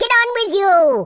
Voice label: hurry, Welsh; speech